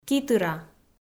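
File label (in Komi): "китыра", Komi, female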